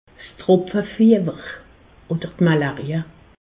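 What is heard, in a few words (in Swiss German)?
"tropefiewer", Alsatian; medecine; paludisme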